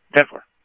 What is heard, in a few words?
PD Police, scanner audio; ThompsonPeakHigh